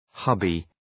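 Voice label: "hubby", English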